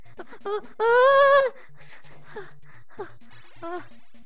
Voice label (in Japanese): ダメージボイス; 悪魔城ドラキュラ(FC版)